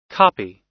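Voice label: "copy", US English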